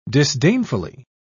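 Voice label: "disdainfully", British English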